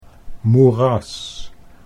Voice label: "moeras", Dutch

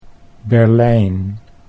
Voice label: "Berlijn", Dutch

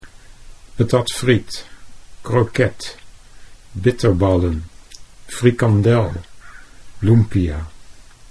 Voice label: "patat friet", Dutch

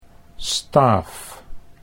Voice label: "staaf", Dutch

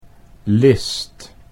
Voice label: "list", Dutch

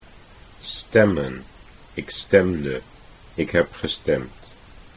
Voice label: stemmen ik stemde, Dutch

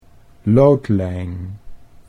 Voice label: "loodlijn", Dutch

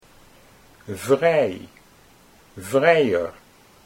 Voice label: vrij vrijer, Dutch

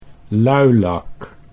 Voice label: "luilak", Dutch

